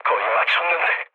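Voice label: Korean bomb failure mood low; speech; War Thunder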